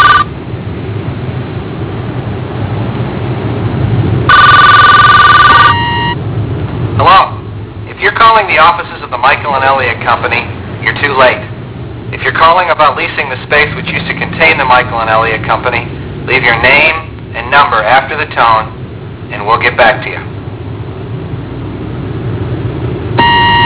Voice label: phone message